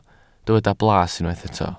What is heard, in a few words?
"blas", welsh